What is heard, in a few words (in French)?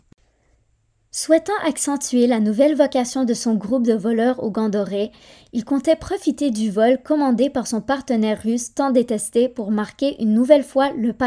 Audio Francais